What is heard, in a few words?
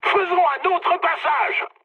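bomb failure, mood high, French; War Thunder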